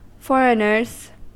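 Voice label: "foreigners" US English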